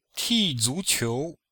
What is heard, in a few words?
"ti1 zu2 qiu2", chinois; Cours de Chinois